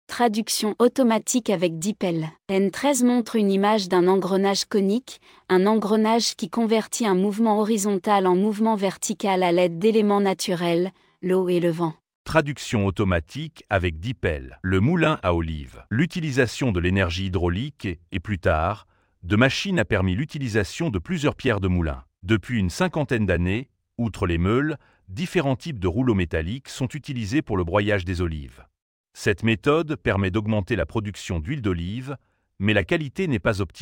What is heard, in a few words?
french; oliveoilmuseum